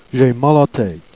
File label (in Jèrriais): "j'ai ma à la tête", Jèrriais